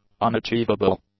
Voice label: "unachievable", English; adjectives

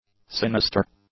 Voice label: "sinister", English; adjectives

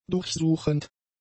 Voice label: "durchsuchend", german; adjectives

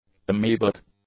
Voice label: "amoebic", English; adjectives

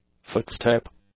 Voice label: "footstep", English; nouns

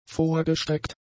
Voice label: "vorgestreckt", German; adjectives

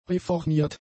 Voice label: "reformiert", German; adjectives

